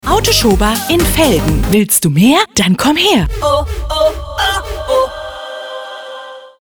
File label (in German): JINGLES CLAIMS